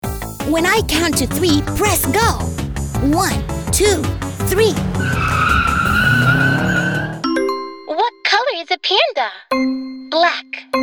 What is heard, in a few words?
eLearning